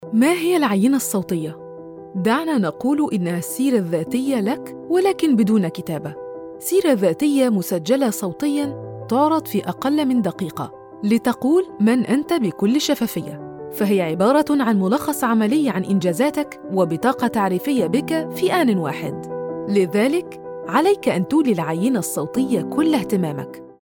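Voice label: Arabic female Educational Modern Standard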